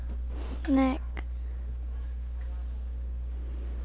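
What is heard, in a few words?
"neck", spoken word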